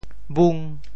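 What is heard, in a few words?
"bung5", Teochew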